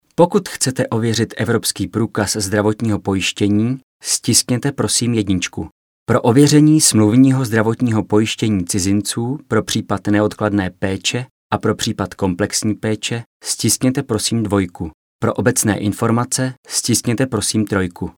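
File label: male Czech IVR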